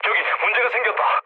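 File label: Korean aircraft damaged mood low; War Thunder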